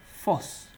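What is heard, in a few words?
"force", Nigerian Pidgin, male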